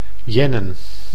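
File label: "jennen", Dutch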